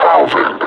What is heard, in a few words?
"thousand", suppressor; Half Life Alyx; combine